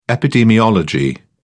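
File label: "epidemiology", British English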